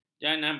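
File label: "janabat", Malay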